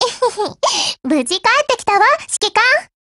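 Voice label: OPERATION OVER, Japanese; GF Alarm; M500Mod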